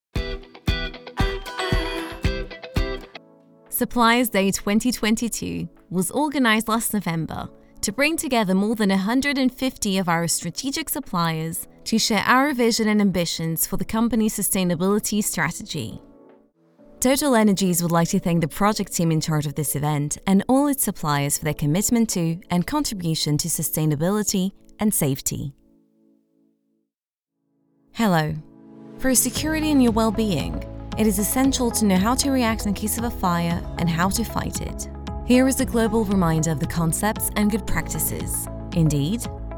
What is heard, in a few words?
ENG Corporate